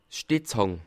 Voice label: "stitzstang", Alsace

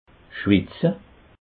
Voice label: "schwitze", alsace

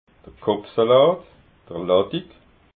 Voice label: "kopfsalat", alsace

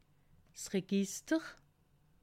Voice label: "register", alsace

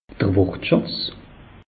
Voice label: "wortschatz", alsace; meteo

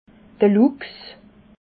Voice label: "luchs", alsace

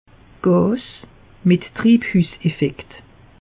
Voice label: "gas", alsace; meteo

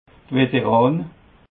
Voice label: "veteran", alsace

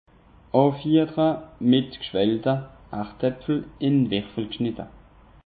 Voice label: "schwelte", alsace; peche

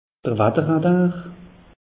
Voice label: "watter radar", alsace; meteo

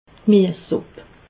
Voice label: "gmiessupp", alsace

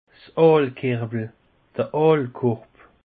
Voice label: "aalkerwel", alsace; peche

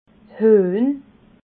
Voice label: "huehn", Alsace

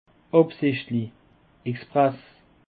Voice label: "absichtlich", alsace

